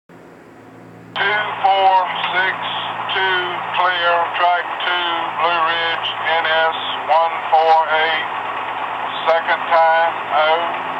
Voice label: Blue Ridge clear